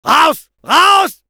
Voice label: Medic battlecry fr; Raus ! Raus !; Robot Medic